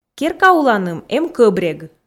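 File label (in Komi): керка уланым эм кӧбрег, Komi, female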